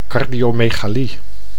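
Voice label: "cardiomegalie", Dutch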